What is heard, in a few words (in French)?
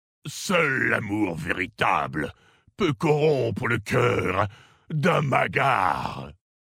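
French Male Flirt; Orc Maghar; Amour veritable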